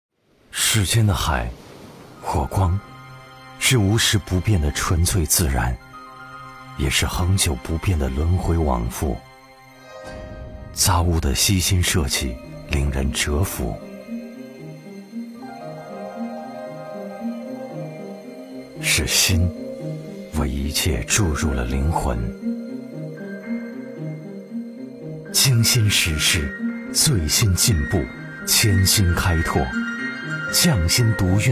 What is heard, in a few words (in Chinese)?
男 冰箱; 嘉格纳400系列冰箱